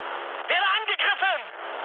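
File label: German aircraft damaged mood high, speech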